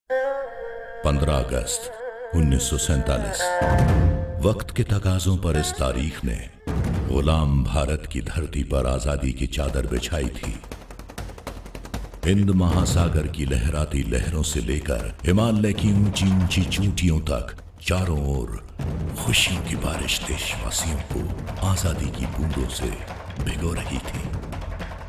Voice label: docu hindi